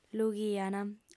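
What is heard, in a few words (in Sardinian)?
"lughiant", Sardinian, female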